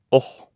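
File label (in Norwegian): "aah", Norwegian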